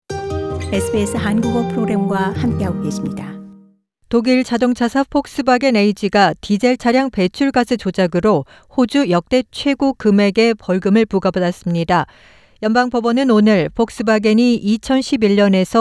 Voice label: korean; Volkswagen hit with record $125 million fine for emissions breach; SBS Korean